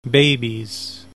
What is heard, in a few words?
"BABIES", English